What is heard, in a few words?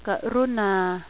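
"please", male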